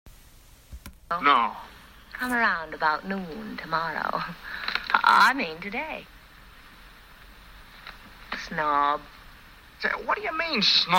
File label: mid atlantic; katherine hepburn philadelphia story